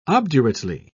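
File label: "obdurately", British English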